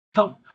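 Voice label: Voice Acting es M, Spanish, male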